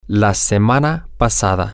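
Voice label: last week, Spanish, male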